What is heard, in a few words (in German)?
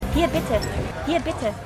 Barfrau Kellnerin; For All Mankind